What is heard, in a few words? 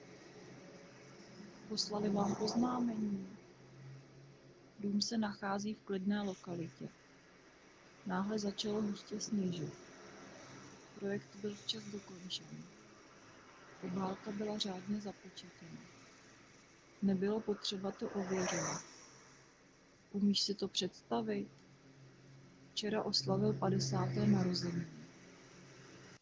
Road Noise Czech Female Handset; STF 294 Database (EG-ES 202 396); AMR-WB; 0ms Delay - 0ms Jitter - 0% Loss